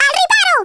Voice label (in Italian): "What the", Italian, Speech; Worms Armageddon